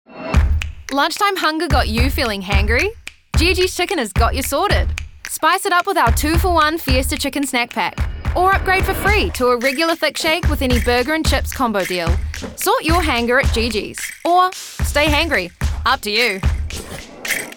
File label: Hard-ish Sell; Gigi s Chicken